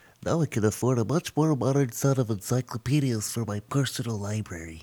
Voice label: Nerd Win; blackjack

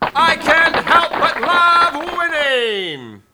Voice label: Announcer Win; blackjack

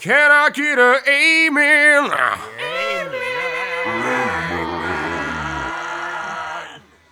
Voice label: Preacher Win; blackjack

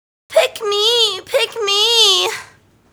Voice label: Valley Girl - Pick Me Pick Me, voices; blackjack; Intro Voices

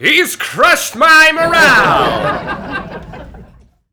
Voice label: Announcer Loss; blackjack

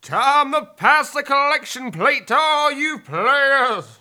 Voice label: Preacher Lose; blackjack

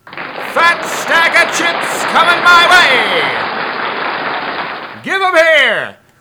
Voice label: Announcer Win; blackjack

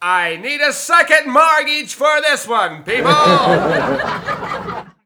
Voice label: Announcer Lose; blackjack

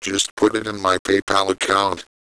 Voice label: Terminal Win, Computer; blackjack

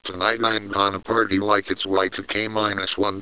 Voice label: Terminal Win, Computer; blackjack

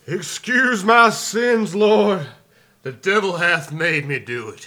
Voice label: Preacher Lose; blackjack

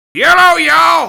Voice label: Redneck - Yello Y'all, voices; blackjack; Intro Voices

